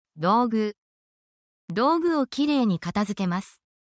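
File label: Japanese word, essential Japanese phrases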